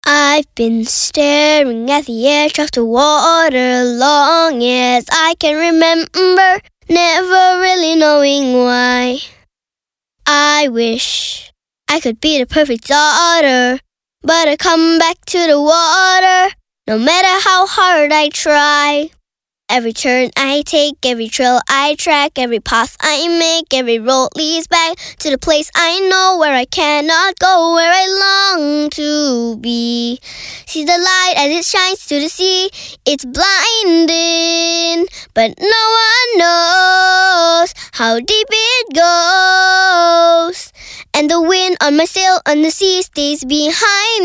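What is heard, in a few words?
English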